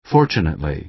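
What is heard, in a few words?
"fortunately", American English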